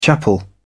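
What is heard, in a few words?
"chapel", British English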